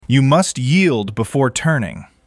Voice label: Male Yield Before Turning

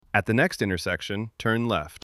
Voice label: Male Turn Left Intersection

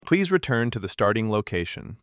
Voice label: Male Return To Start

